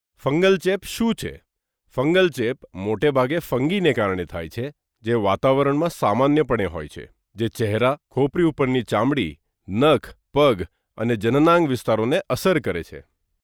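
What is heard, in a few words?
Gujarati, male, voice over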